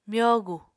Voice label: "myogu", Apatani

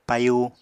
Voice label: "payu", Apatani

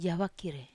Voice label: "avakir", Wamesa

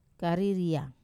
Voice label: "kariria", Wamesa; to be bad

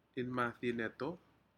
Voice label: "inmadineto", Aneityum; fish

